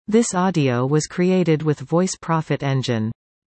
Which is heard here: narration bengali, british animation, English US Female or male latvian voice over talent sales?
English US Female